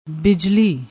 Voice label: "bijlli", Punjabi